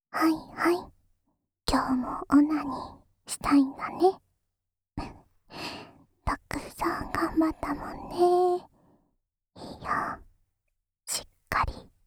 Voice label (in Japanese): しこしこボイスループ（右耳）, ASMR; オナサポ用; 特典 ダンジョン最奥の禁断の魔女は、あなたのおち○ちんを離さない!～命助けたんだから、たくさん精子ちょーだい?